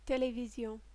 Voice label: "television", French